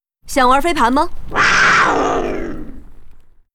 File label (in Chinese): Huntress pissed